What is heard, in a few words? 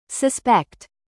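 "produce", verb, British; suspect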